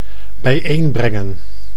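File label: "bijeenbrengen", Dutch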